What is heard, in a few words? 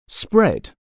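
"spread", English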